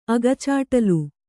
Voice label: "ಅಗಚಾಟ್ಲು", Kannada

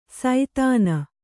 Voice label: "ಸೈಧಾನ", Kannada

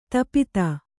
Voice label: "ತಾಪ್ತಿ", Kannada

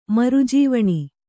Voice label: "ಮರುಜೇವಣಿ", Kannada

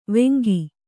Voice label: "ವೆಂಕ", Kannada

